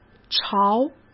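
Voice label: "潮" Mandarin Chinese; chinese flashcards; hsk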